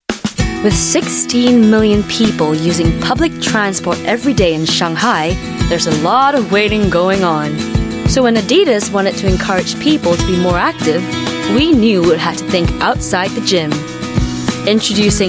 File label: Asian English